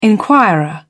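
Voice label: "inquirer", British English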